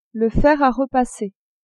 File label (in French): "fer a repasser", French; cuisine